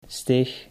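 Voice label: "steeg", Dutch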